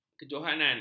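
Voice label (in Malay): "kejohanan", Malay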